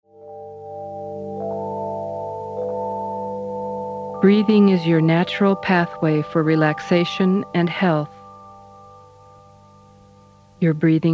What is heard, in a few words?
Breathing for Relaxation